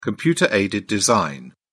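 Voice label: "computer-aided design", English, British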